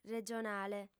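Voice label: "regionale", female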